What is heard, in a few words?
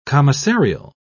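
"commissarial", English, British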